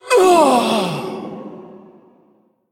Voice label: Female Elemental Death

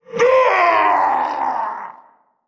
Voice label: Male Old God Death

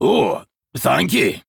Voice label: Male Dwarf Thanks

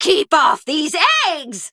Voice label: Female Harpy Play; Witchwing Watcher

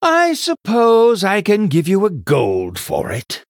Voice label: Male Human After Selling

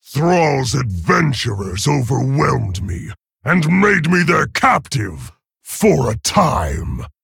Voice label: Male Orc; Hero Garrosh; Story Garrosh Mission8 Victory

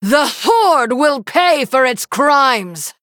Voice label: Female Human

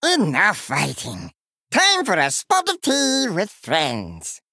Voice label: Male Murloc Entering The Inn; Finley

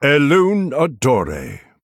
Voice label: Male Night Elf MIRROR GREETINGS

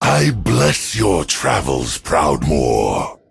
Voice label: Male Drust, Emote Start

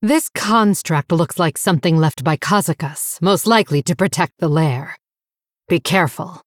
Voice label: Female Blood Elf

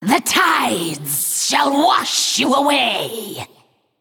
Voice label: Female Naga Play

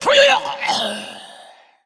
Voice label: Female Faceless Death

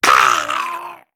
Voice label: Male Sporeling Death

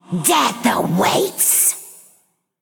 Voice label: Female Banshee Attack

